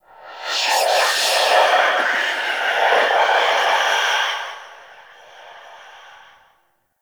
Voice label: Attack Vocal; Warframe; Stalker Acolyte

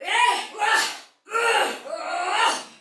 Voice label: Soldier Female, Battle; Dialog; Barks; en; Sinecure

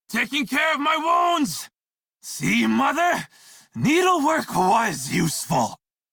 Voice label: Crew Heal Self Male en; Dialog; Warframe; Railjack Crew; Red Veil

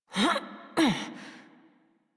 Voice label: Horse Dismount Adult; Warframe; Duviri

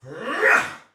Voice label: Attack, Soldier Male; Sinecure

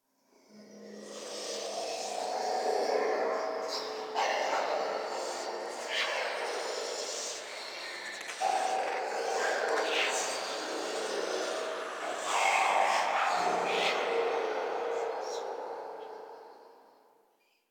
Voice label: Whispers; Warframe; Ambience; Entrati

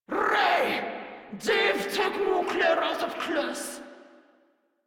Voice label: Patrol Grineer Female; Warframe

